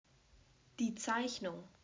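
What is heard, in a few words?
"Zeichnung", German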